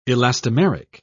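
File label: "elastomeric", British English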